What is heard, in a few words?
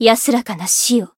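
Voice encount Japanese; niike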